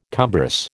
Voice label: "cumbrous", American